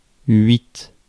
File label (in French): "huit", français